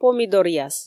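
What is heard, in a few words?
"помидоръяс", Komi, female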